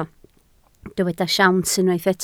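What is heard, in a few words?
"siawns" Welsh, spoken word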